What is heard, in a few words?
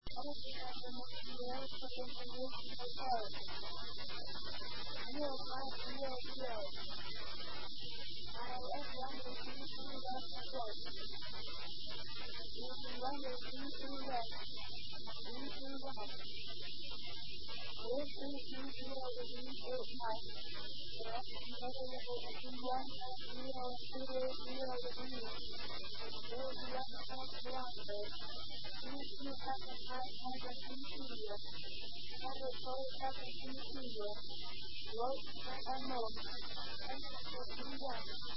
ATIS, Japan; OSK; osaka